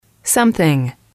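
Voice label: "something", female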